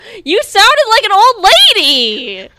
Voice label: old lady